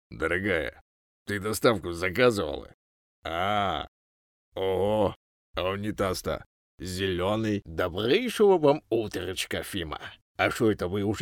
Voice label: rus, диктор и отличный чтец рэп куплетов; voices male; читает рэп и озвучивает ролики

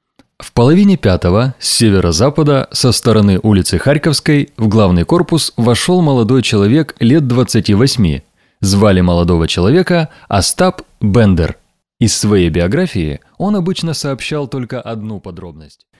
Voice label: Голос среднего возраста, диктор; voices male; rus

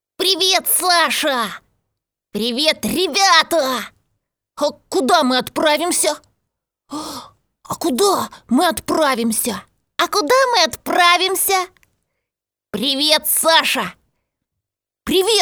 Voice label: пародия. Детские голоса

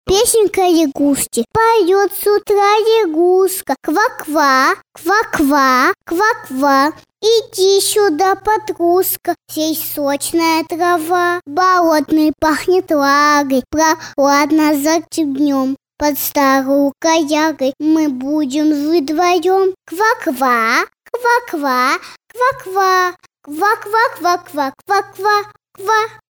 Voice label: детский; voice child

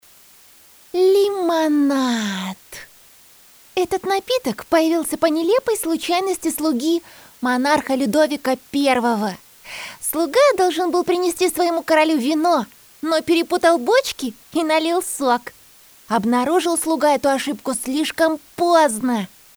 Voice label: пародия. Голос Девочки

